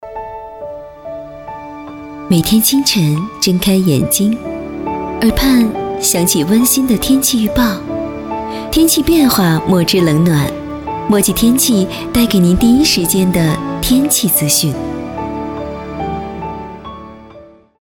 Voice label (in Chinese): 女 广告 自然随意, 国语女声配音; 墨迹天气